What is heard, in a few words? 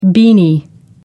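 "beanie", English, British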